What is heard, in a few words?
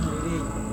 "harire", cloth, Serewen